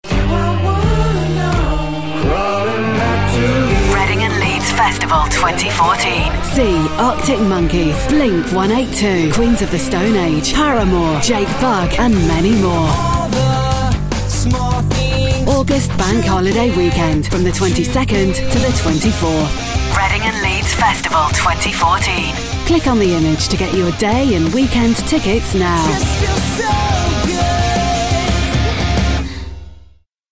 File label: commercial; reading and leeds festival